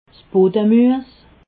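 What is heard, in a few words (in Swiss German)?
"budde muess", Alsatian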